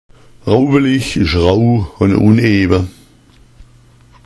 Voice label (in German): "raubelich", schwaebisch; raubelich ist rau, uneben.